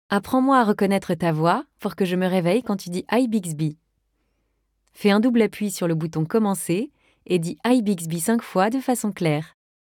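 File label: wake up ready French; Bixby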